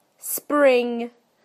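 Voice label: "spring", British English; PictureDictionary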